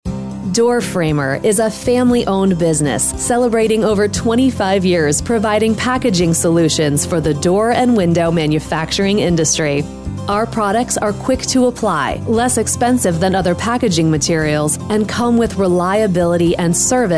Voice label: Informative